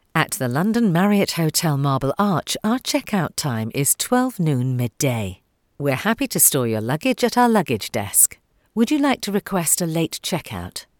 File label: IVR ON HOLD, voice artist